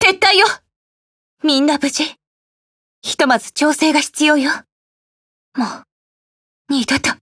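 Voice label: Fail, Japanese; Groza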